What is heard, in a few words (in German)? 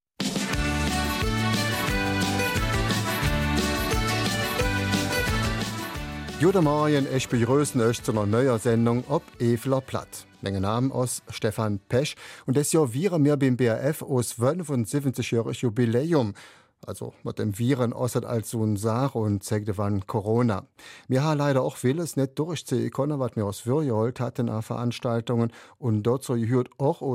Eifeler Mundart; Ein Phonothekar plaudert aus dem Nähkästchen